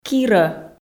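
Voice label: "кирӧ", Komi, female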